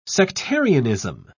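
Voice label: "sectarianism", British English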